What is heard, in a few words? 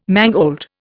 "mangold", Indian